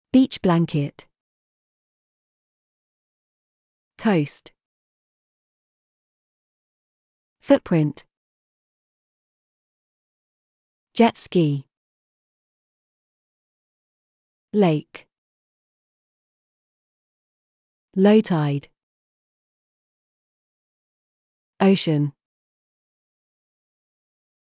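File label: British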